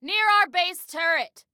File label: Warn Enemy Near Our Base Turret, Female